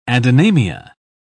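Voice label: "adynamia", British English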